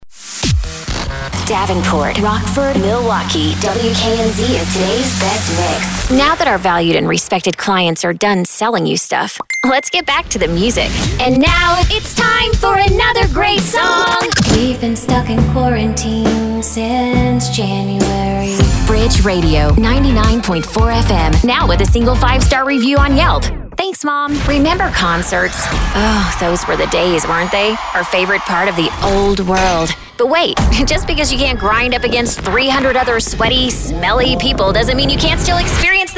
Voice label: Imaging VO Main Composite; Imaging VO Demo